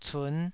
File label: "cun5", taiwanese